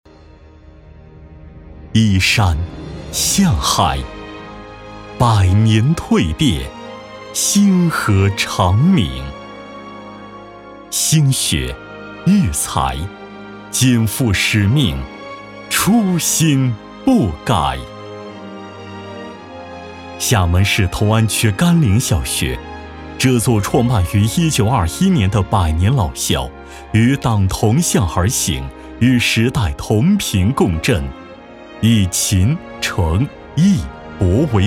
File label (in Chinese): 男, 学校专题; 柑岭小学